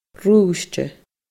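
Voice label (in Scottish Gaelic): "ruisgte", Scottish Gaelic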